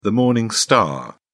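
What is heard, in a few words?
"morning star", British English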